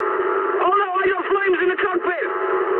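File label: English on fire mood high; War Thunder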